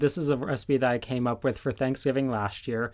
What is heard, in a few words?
recipe thanksgiving, Voice recordings, American, Improv